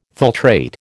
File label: "filtrate", American